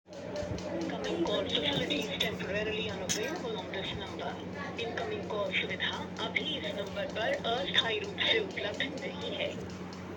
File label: call recording